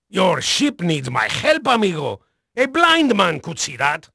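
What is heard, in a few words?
Spanish male, voice; Pirates Of The Caribbean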